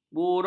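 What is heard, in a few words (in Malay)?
"buruh", Malay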